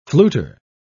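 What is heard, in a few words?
"fluter", English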